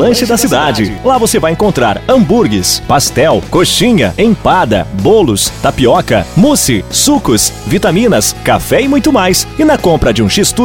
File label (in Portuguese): VOZ IMPACTO GRAVE vinheta para lanchonete vinhetas spot chamada comercial propaganda; anuncio